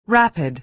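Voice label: "rapid", American English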